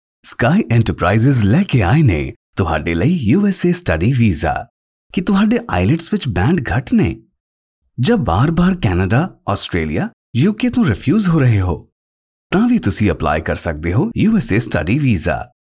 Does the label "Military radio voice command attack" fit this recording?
No